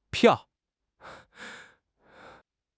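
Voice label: "piao4", Chinese; HSK2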